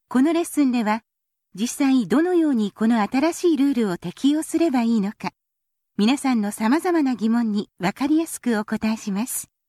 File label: Narration